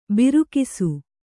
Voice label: "ಬೀರಗಾಸೆ", kannada

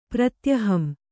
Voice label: "ಪ್ರತ್ಯಹಂ", Kannada

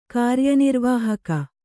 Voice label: "ಕಾರ್ಯನಿರ್ವಾಹಕ", Kannada

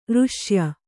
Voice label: "ಋಶ್ಯ", Kannada

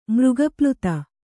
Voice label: "ಮೃಗಪ್ಲುತ", Kannada; mřga pluta; noun

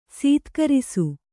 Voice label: "ಸತ್ಕರಿಸು", Kannada

